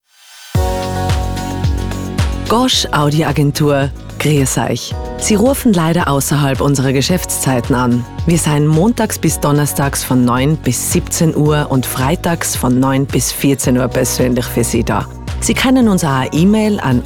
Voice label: Nacht Tirolerisch

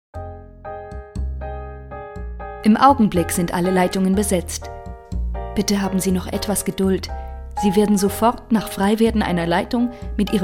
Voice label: Telefon

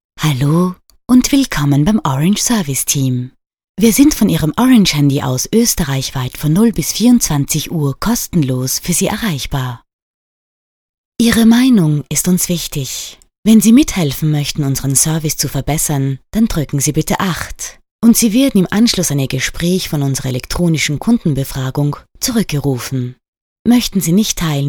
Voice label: Telefon Operatorstimme; ORANGE

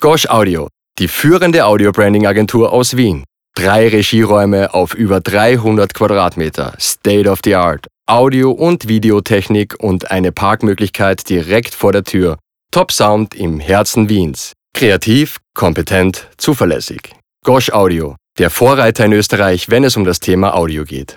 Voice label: Plakativ, speakers

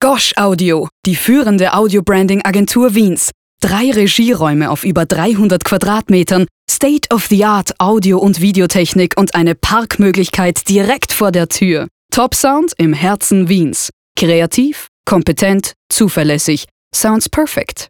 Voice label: Spot plakativ

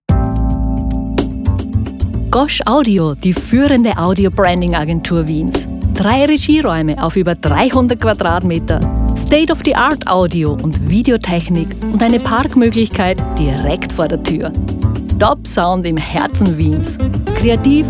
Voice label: Werbung

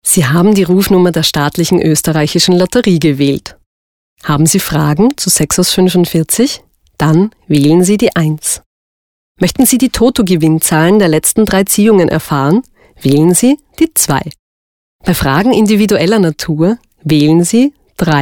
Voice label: Tonband

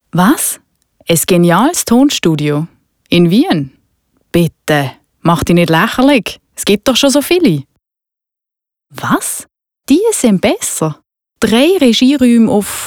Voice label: Mit Laecheln Schweizer Deutsch

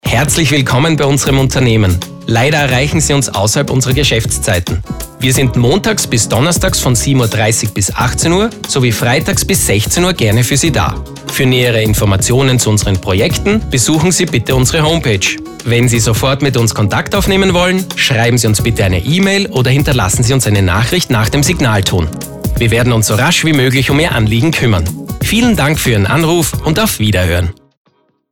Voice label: Telefon

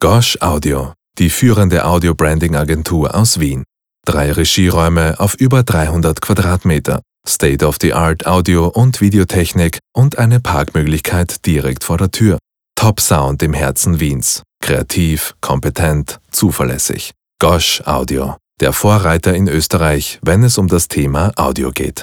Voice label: plakativ

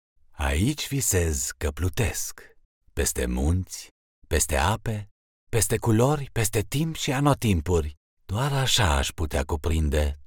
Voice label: Rumaenisch Imagefilm entspannt; Messe

